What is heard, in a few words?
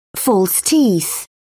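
"false teeth", English, British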